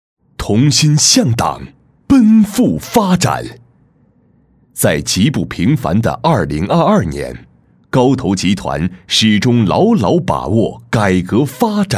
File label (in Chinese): 男 专题; 高投集团